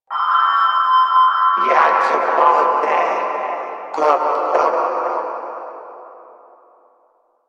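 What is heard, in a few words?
Announcer Female, English; Warframe; Corpus Venus Announcer; Battle Barks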